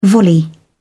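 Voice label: "volley", British English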